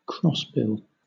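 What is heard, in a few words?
"crossbill", British English; pronunciations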